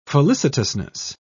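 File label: "felicitousness", British English, dictionary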